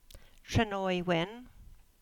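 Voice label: "Trenawin", Cornish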